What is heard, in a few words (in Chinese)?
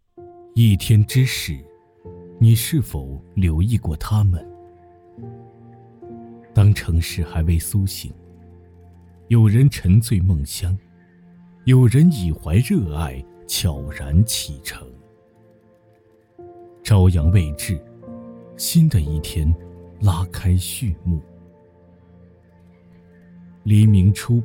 纪录片 青年（18-35）; 日与夜